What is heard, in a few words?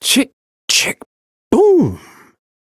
Ambient Barks, en; Warframe; Mall Romance; Quincy